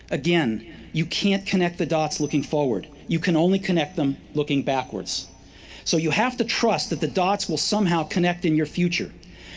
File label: voice prompt